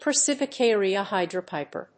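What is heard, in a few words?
"Persicaria hydropiper", English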